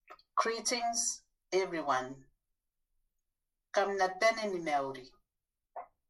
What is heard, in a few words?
Greetings Everyone, Kiribati; Kiribati LW